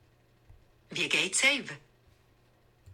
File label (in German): wie gaits aiwe, Wallis, female